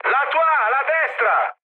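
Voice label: Italian right wing mood high, speech; War Thunder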